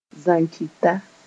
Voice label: "zankita", moore

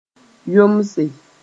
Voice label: "yomse", moore; perform movements in dancing

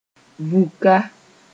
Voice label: "vũka", moore; unique

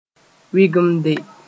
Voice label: "wɩgemde", moore

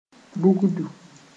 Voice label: "bugdu", moore

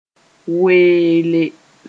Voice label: "weele", moore

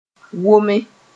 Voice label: "wome", moore

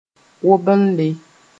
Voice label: "wobelle", moore

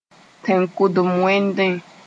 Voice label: "tẽn-kʋdem-wẽndẽ", Moore

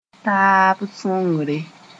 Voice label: "taab sõngre", Moore; mutual aid

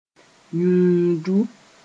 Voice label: "yũudu", moore

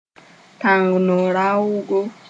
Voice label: "tãngno-raoogo", moore

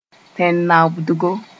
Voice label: "tẽn-naobdgo", Moore; soil

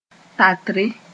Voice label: "tatre", moore

